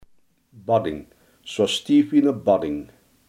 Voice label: "steunbalk", Limburgs

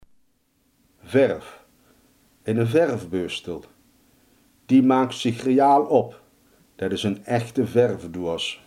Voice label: "verf", limburgse

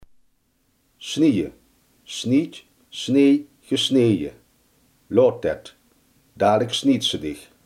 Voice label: "snijden", Limburgs

